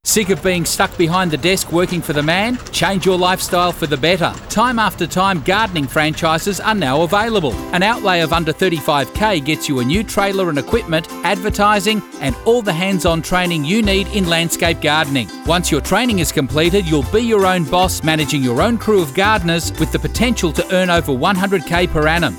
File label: radio